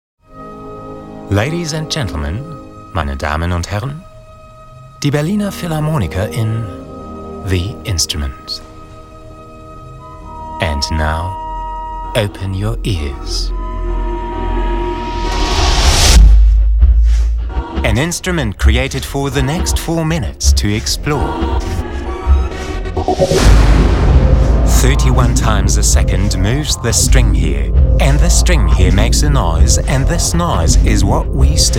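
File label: English UK, Kommentar Dynamisch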